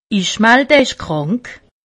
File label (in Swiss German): "krankmelde", alsace; medecine